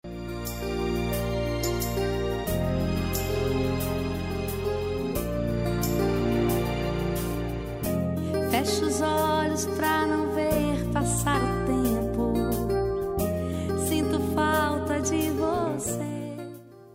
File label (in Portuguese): esposa gospel, Aniversário Esposa Gospel – Voz Masculina